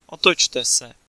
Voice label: U Turn, Czech, male